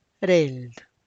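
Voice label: tlacochahuaya, Zapotec; coiled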